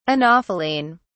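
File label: "anopheline", British English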